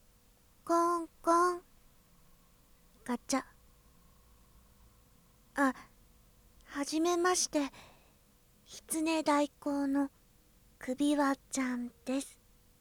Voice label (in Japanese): ASMR, 台詞サンプル; 首輪ちゃん; 出稼ぎアイドル; 台詞サンプル１